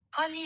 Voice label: "qalil", Arabic